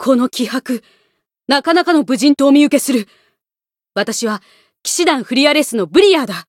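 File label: Voice story, Japanese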